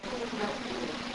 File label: womans voice